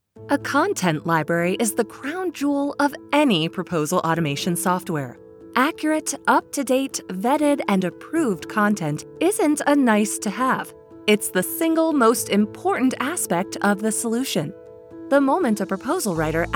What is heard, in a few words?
Narration; Expedience Software